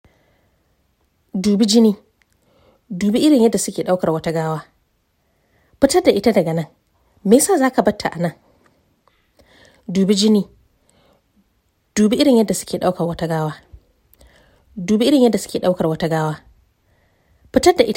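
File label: Hausa General; GoLocalise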